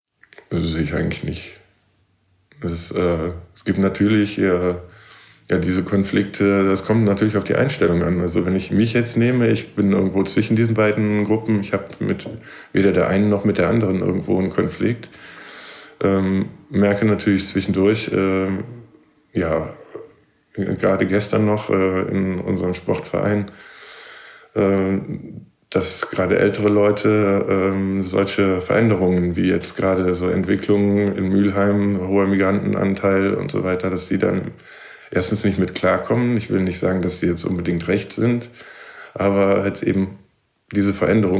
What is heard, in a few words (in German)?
deutsch esperanto